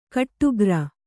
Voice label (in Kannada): "ಗುಟ್ಟುಗಾರ", kannada